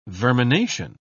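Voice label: "vermination", British English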